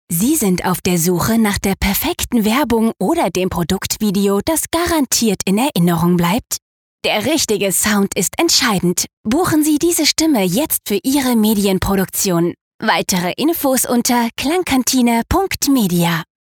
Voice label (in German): werblich